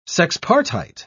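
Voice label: "sexpartite", British English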